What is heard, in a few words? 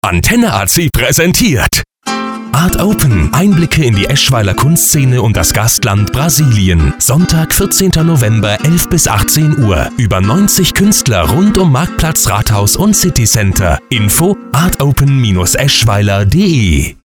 RADIOSPOT; ART OPEN 2010